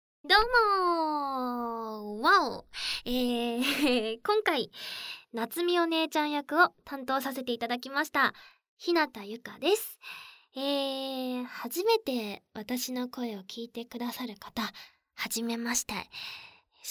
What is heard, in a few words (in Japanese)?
フリートーク